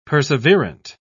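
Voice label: "perseverant", British English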